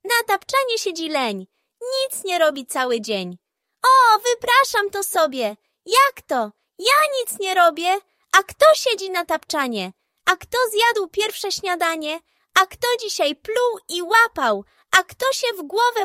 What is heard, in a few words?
audiobook; Len; Jan Brzechwa